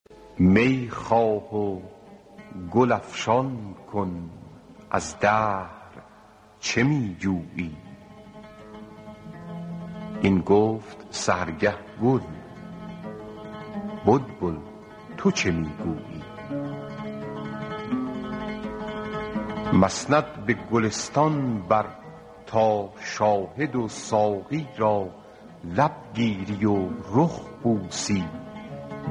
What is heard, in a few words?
Deklameh Ba Saz